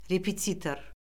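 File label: "repetitor", Azerbaijani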